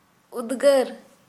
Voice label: Santali sweat